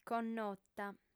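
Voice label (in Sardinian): "connota", female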